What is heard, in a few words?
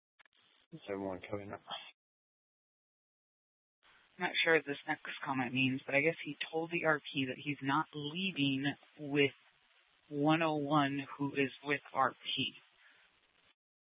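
Mesa Police Department Superstition Dispatch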